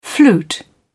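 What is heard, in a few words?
"flute", British English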